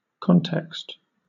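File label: "context", British English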